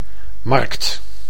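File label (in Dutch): "markt", Dutch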